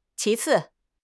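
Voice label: "qi2 ci4", Mandarin Chinese; HSK4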